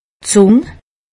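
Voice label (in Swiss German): "zung", Haut Rhin; medecine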